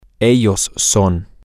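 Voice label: Spanish, they are permanent, male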